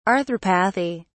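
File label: "arthropathy", English, British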